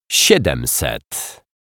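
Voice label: "siedemset", Polish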